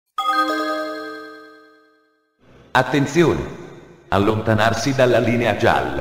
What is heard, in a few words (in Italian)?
annonce sonore trenitalia allontanarsi dalla linea gialla